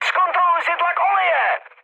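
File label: damaged oil, Czech, mood high; War Thunder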